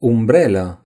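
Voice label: "umbrella", Romanian; gcompris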